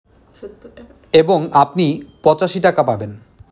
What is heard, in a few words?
male